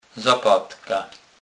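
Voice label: "zapadka", Kurpie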